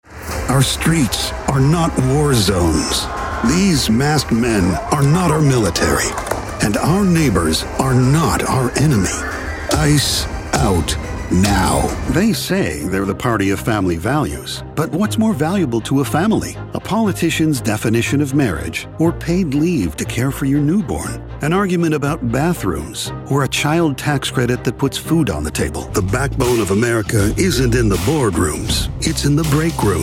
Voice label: Political, voiceover